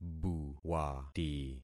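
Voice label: "buwati", concatenated syllables; Affixing experiment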